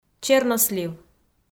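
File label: "чернослив", Komi, female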